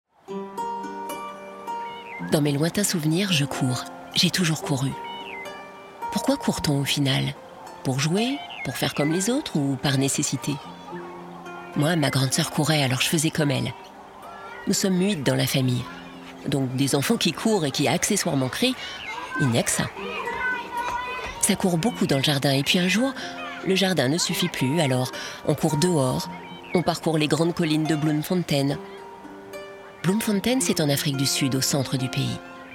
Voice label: Podcast très parlé; Ko Zola Budd

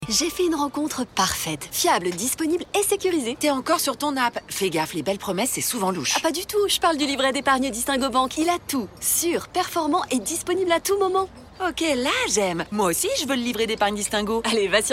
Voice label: spot radio; DISTINGO; les copines

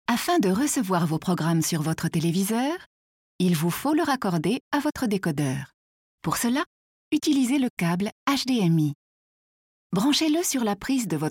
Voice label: voix off; canal; 1er branchement; hdmi

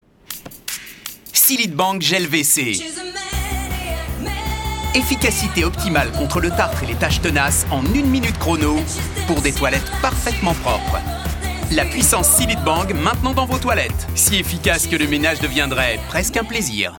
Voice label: SPOT TV; CILLIT BANG MANIAC

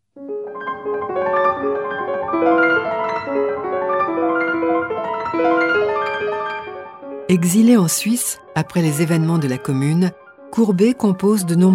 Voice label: Audioguide; Courbet; musee Impressionisme

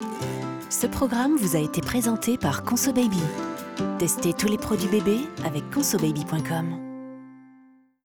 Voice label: Billboard; Consobaby

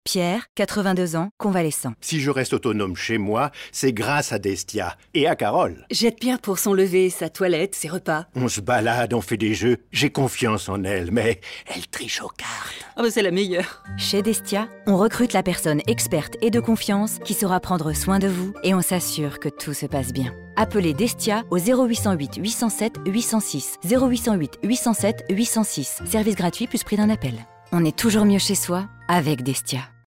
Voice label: SPOT RADIO; DESTIA

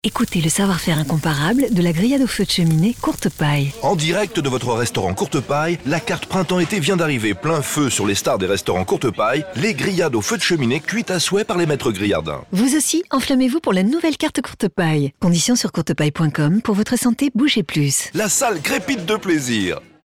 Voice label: Spot Radio; Avril

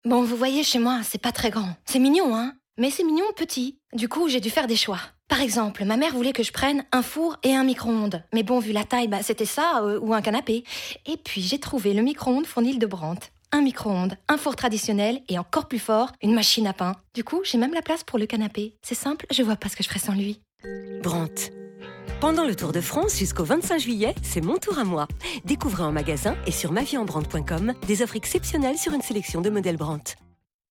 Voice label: VOIX COMÉDIE; BRANDT

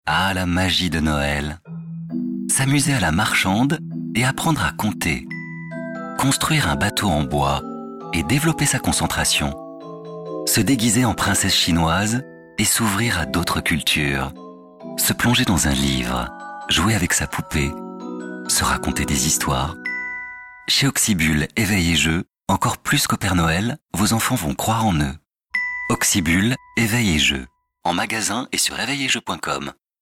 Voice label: spot radio noel; oxybul

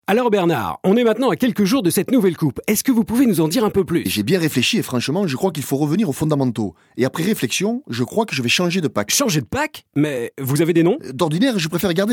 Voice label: Pub ton intervieweur sportif; Bic

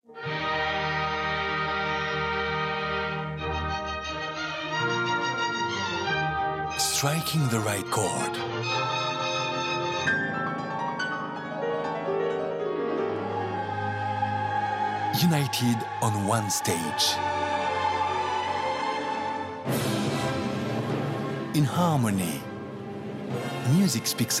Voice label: BA anglais peace concert

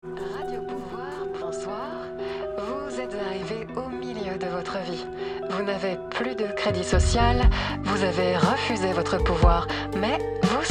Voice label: chroniqueuse radio voix court metrage; voix-off

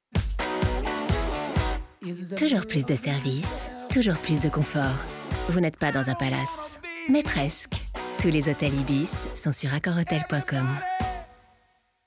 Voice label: Spot; IBIS

